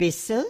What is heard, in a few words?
"bissel", Yiddish